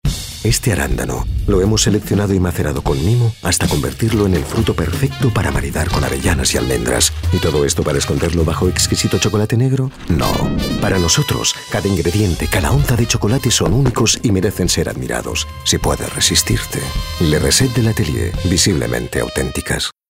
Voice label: male spanish chocolate comercial

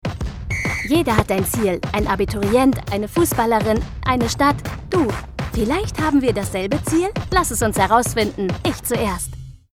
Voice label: female german commercial; ideasonora

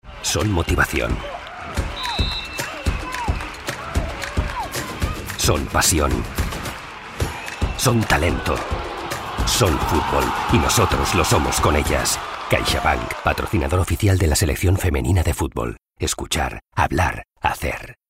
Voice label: male spanish sports comercial; ideasonora

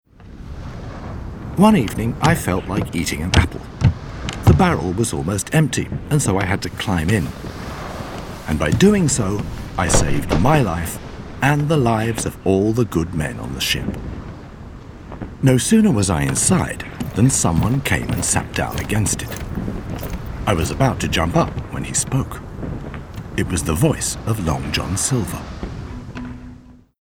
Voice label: male british english commercial; ideasonora

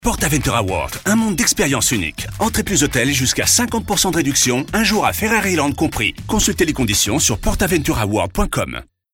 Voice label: male french park comercial; ideasonora

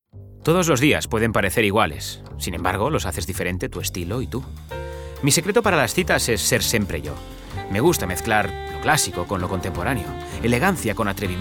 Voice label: male spanish comercial; ideasonora

